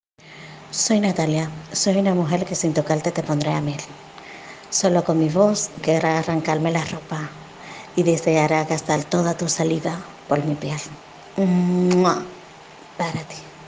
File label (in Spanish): Locución